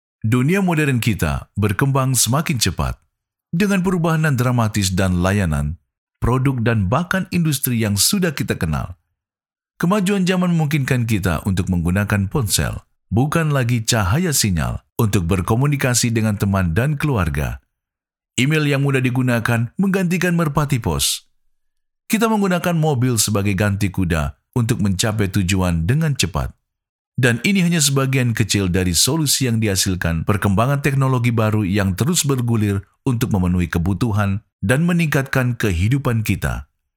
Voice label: Indonesian Bahasa Male VO